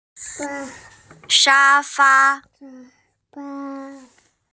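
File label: "sha fa", Mandarin Chinese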